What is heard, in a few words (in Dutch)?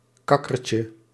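"kakkertje", Dutch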